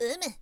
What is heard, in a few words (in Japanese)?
"umu", shozyo